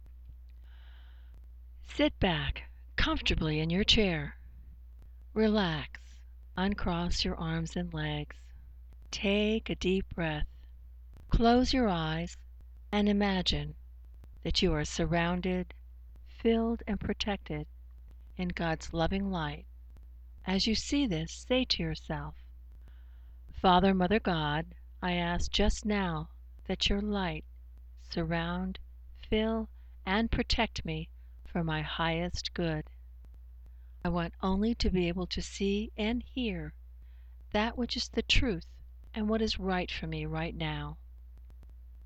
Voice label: meditation; God Bless You